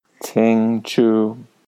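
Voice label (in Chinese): "qingchu", Mandarin Chinese; 清楚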